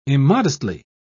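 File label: "immodestly", British English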